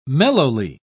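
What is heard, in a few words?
"mellowly", British English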